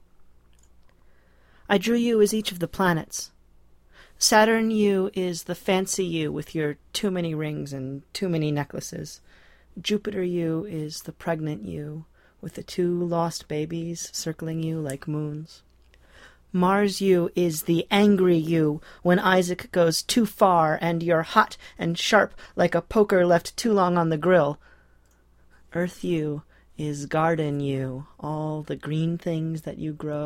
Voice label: dramatic voiceover reel